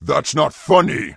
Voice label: Orc Male Standard Pissed

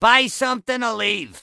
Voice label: Goblin Male Gruff NPC Pissed

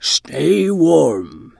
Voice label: Tuskarr Female Farewell; Magic User

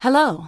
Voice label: Human Female Warrior NPC Greeting

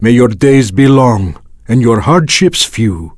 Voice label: Draenei Male Farewell

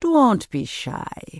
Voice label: Troll Female Laid Back NPC Greeting

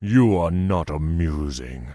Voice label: Tauren Male Elder Pissed; NPC

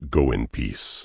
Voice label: Tauren Male Warrior NPC Farewell

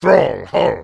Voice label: Orc Male Guard NPC Greeting

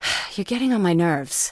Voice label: Human Female Warrior NPC Pissed

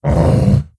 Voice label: Wound, Creature; Prophet Tharonja

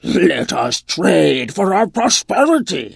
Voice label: Tuskarr Female Vendor; Magic User

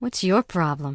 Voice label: Human Female Official NPC Pissed

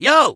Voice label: Goblin Male Gruff NPC Greeting

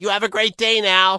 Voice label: Gnome Male Standard NPC Farewell

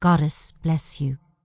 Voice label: Night Elf Female Priestess NPC Greeting

